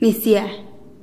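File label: "nis gie", Teotitlán del Valle Zapotec; rain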